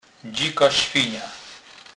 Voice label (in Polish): "dzika swyna", kurpiow